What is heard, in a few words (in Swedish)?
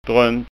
"dront", skanska